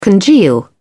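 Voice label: "congeal", British English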